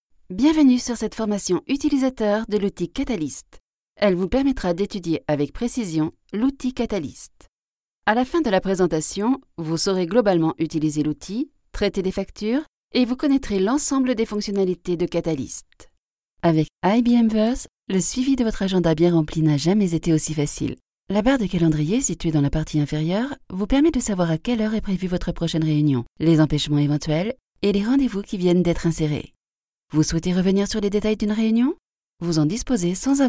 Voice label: F French Parisian E-Learning